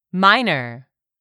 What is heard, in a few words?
"minor", English; 3000 words